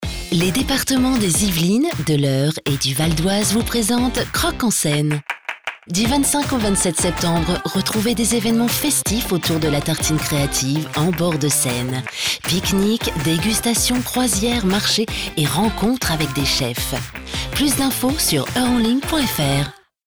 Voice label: Pub TV; CG27